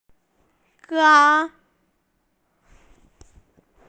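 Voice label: "gai1", Mandarin Chinese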